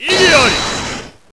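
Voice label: "Igiari", Japanese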